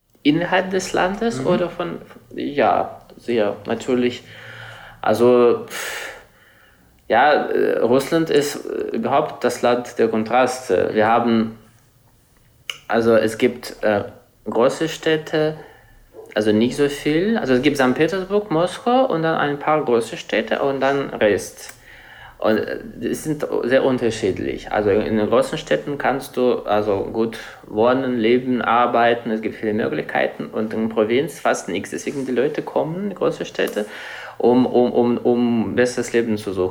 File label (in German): russisch